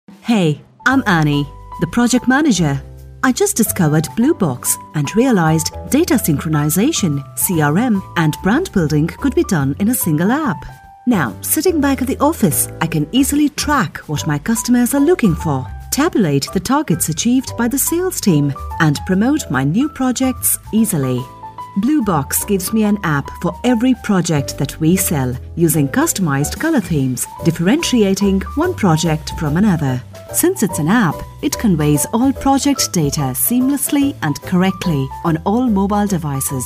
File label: hindi female english tutorial